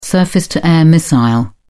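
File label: "surface-to-air missile", British English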